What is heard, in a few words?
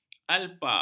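"alpa", Malay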